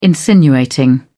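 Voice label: "insinuating", British English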